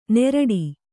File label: "ನೆರಡಿ", Kannada